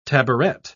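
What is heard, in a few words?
"tabouret", British English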